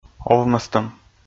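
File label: "овмӧстӧм", Komi, male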